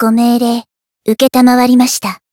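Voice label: variable chant, Japanese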